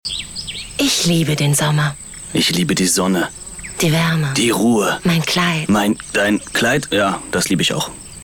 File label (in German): Werbung; CA Sommer